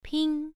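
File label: "ping", tone 1, pronunciation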